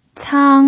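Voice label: "cang", pinyin, first tone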